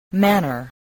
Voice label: "manner", spelling voice